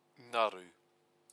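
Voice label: "ngaru", Māori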